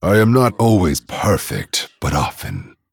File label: Male Dragon Oops